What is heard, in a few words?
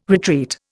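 "retreat", Indian English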